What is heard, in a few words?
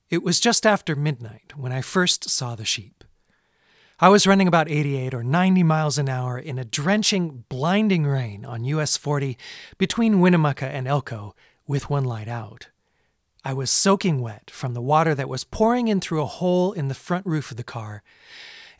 Shure SM58